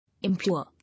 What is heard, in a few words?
"Impure", English